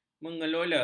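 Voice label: "mengelola", Malay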